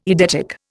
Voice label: "eidetic", Indian

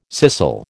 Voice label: "scissel", American

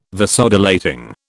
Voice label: "Vasodilating", British

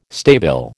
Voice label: "stabile", American

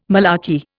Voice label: "malarkey", Indian

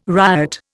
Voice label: "riot", Indian

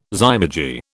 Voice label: "zymurgy", British

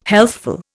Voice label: "healthful", Indian

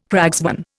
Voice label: "cragsman", Indian

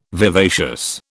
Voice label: "vivacious", British

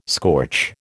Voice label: "scorch", American

